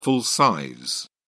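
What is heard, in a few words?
"full-size", British English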